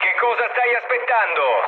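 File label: Italian attack position mood low, speech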